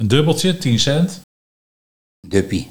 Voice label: "Dubbeltje 10 cent - Duppie", Nieuw lekkerlands dialect; dubbeltje, tien cent

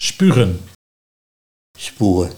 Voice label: "Spoege", dialect Nieuw lekkerlands; spugen

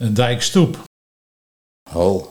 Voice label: "Hol", Nieuw lekkerlands dialect; dijkstoep

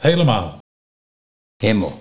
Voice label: "Himmel", dialect Nieuw lekkerlands; helemaal

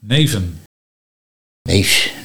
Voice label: "Neefs", dialect Nieuw lekkerlands; mv. van neef

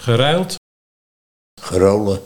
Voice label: Gerolen, Nieuw lekkerlands dialect; geruild

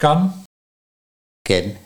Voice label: "Ken", Nieuw lekkerlands dialect; kan

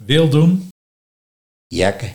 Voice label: "Jakken", dialect Nieuw lekkerlands; wild doen

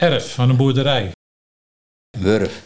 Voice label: "Wurf", Nieuw lekkerlands dialect; erf (boerderij)

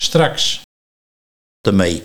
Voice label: "Temee", Nieuw lekkerlands dialect; straks

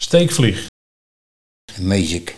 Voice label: Meezik, Nieuw lekkerlands dialect; steekvlieg

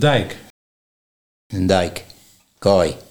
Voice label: "Daik of Kaai", Nieuw lekkerlands dialect; dijk

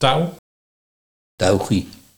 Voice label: "Touw - Tougi", Nieuw lekkerlands dialect; tougie

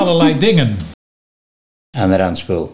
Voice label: "Anderand spul", Nieuw lekkerlands dialect; Allerlei dingen

